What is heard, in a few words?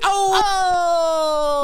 "oh oh" male; ooh ahh